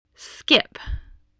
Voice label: "skip", voice, spelling